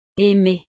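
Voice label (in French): "aimer", French; Amar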